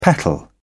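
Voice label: "petal", British English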